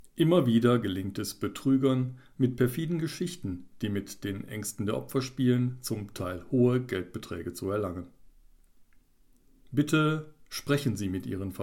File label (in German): voiceover polizei warnung eindringlich sachlich; Stil: Sachlich, warnend; Tipps der Polizei zu Schockanrufen(VoiceOver Erklärfilm / PSA)